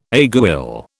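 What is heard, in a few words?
"aiguille", British English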